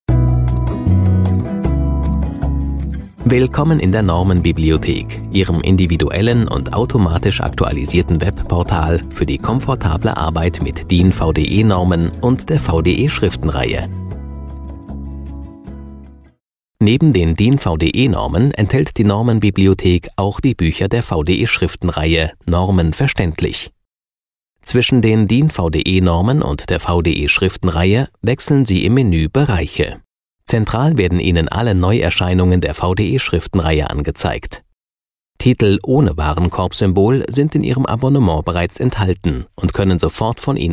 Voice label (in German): sprecher elearning computerkurs